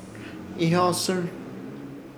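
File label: "Ihyosɨrz", Chamacoco word, creador; Ishir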